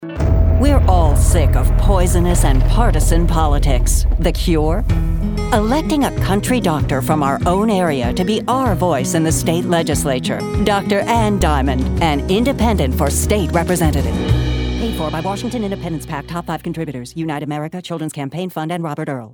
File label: voice acting